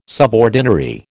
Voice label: "subordinary", American